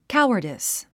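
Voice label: "cowardice", English word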